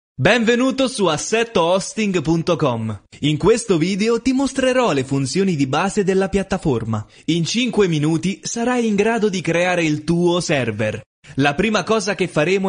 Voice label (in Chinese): 意大利语男 外语 小语种